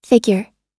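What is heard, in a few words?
"figure", English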